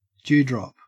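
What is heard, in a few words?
"dewdrop", Australian English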